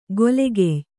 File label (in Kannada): "ಗೆಲುಗಯ್ಯ", Kannada